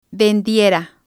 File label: "vendiera", Spanish